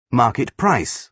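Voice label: "market price", British English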